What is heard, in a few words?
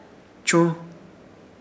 "Churz", Ishir Chamacoco; arrastrar